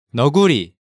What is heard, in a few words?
"Neoguri", Hangeul sounds; DigitalSoju